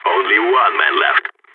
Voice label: one enemy left, balkan; vo